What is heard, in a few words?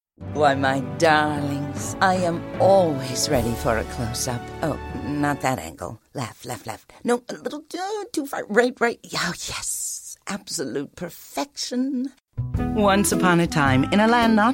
Real Spots